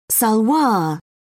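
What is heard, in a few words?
"salwar", British English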